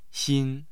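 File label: "xin1", Mandarin Chinese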